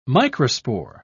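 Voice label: "microspore", British English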